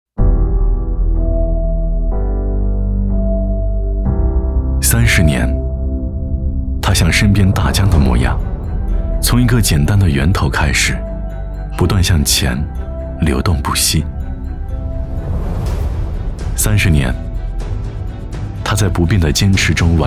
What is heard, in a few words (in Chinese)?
男 走心; 利安达; 三十年